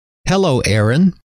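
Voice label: hello, male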